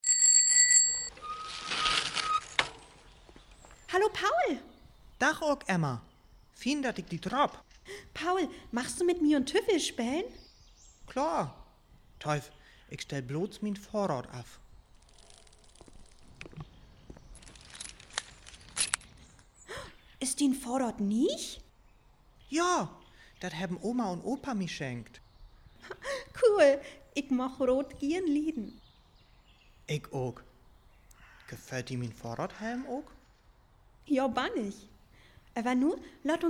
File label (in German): Dialog, niederdeutsch